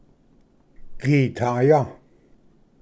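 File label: "gitaya", Cherokee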